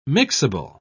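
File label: "mixable", British English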